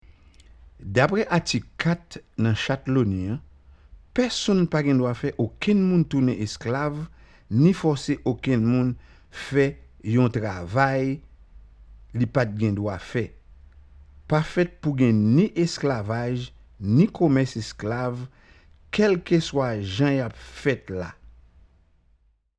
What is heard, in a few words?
Haitian creole